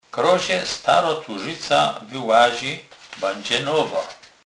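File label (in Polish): "turzyca", Kurpie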